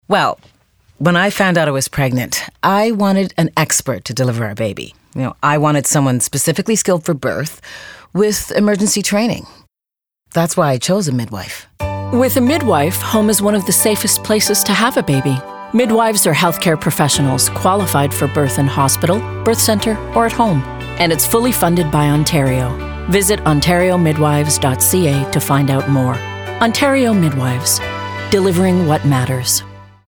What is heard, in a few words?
Radio spot